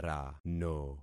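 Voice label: "rano", concatenated syllables; Affixing experiment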